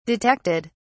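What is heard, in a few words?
"detected", British English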